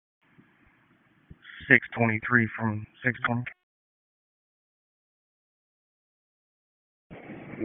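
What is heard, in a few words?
Dispatch, P25; WSO DISP 1; Wake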